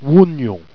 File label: "ouongnon", Jèrriais